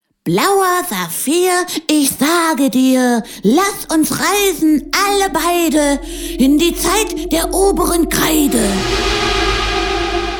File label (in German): Sprache Trickstimme; Maxinoto